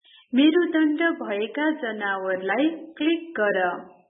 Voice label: instruction; grade4; vertebrates invertebrates new